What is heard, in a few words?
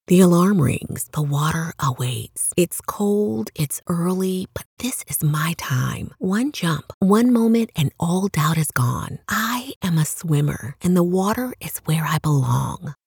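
English, US, female, narration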